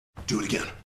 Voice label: loud do it again